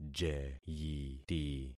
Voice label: "jeyiti", concat syllables; Affixing experiment